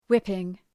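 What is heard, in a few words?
"whipping", English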